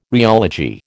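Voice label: "rheology", American English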